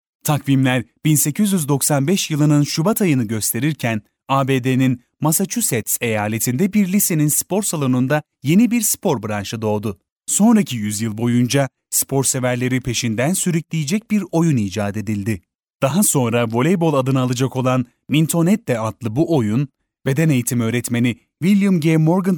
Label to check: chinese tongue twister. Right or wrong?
Wrong